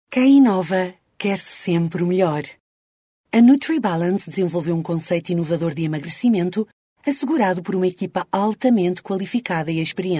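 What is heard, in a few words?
Portuguese European F Commercial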